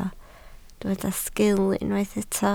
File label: "sgiil", Welsh